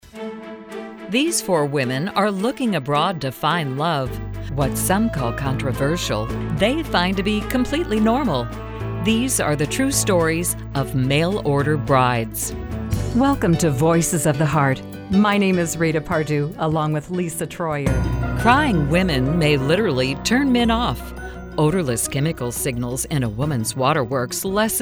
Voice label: Host TV Radio